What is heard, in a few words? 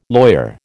"lawyer", American